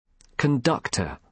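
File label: "conductor", British English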